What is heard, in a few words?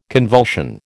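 "convulsion", American English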